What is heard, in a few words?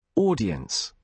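"audience", British English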